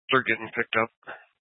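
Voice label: Troop 2A Dispatch, scanner audio recording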